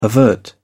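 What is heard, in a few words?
"avert", British English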